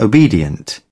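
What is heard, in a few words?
"obedient", British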